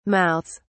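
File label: "mouths", British English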